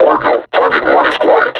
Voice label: announce kill player, combine suppressor

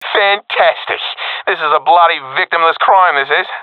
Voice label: round won, professional epic; vo; Counter Strike Model Pack; CS:GO

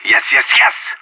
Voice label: on a roll brag, anarchist

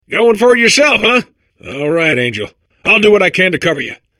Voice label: babble; Portal 2

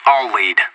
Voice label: radio follow me; anarchist

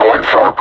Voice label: announce attack, combine suppressor; Half Life Alyx

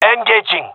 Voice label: attacking, gendarmerie male; vo; agents

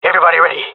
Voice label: round start, jungle male; agents

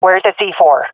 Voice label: query bomb, swat fem; vo; Counter Strike Model Pack; CS:GO

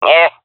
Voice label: negative, jungle fem epic; vo; agents; Source Model Packs; Counter Strike Model Pack; CS2

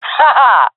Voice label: cheer, jungle fem; vo; agents; Source Model Packs; Counter Strike Model Pack; CS2; jungle fem epic

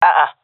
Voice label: negative, seal fem; vo; Counter Strike Model Pack; CS:GO

